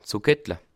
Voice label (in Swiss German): "sokettle", alsace